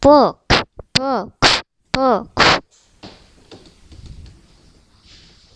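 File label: "book", spoken word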